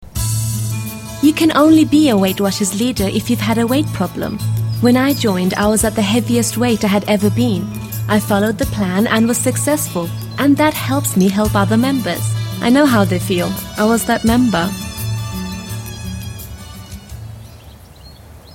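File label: Bengali Accented English; GoLocalise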